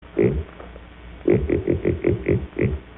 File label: Pig Oink, voder